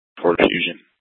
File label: PD Dispatch